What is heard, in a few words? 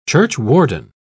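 "churchwarden", British English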